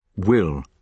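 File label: "will", British English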